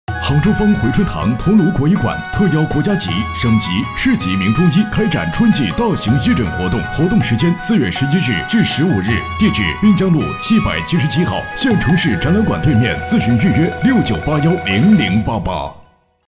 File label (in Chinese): 广告; 方回春堂